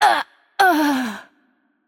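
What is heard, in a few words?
Death, Romanian; bloom minigame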